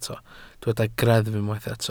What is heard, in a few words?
"greddf", Welsh